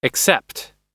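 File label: "except", US English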